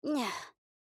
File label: Emote Negative; Luna